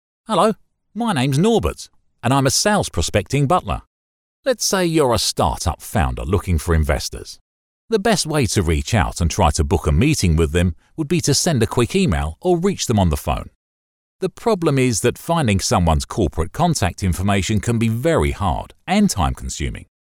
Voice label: male